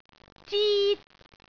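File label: "zit1", Cantonese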